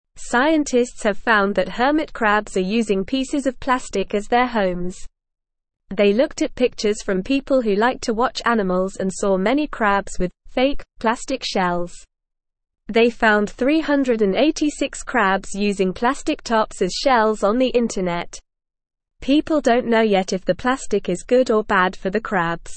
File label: English Lower Intermediate NORMAL Reading; Hermit crabs live in plastic instead of shells; English Newsroom